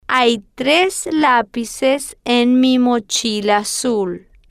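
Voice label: hay Tres Lapices En Mi Mochila Azul, Spanish, female, slow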